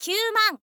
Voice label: "kyuuman", info girl voice